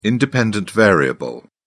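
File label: "independent variable", British English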